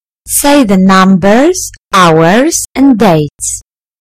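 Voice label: "say", British English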